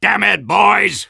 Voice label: negative vocalization; Soldier

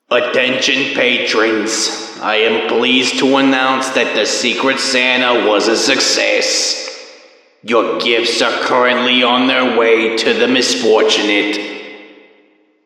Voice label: Intercom round end

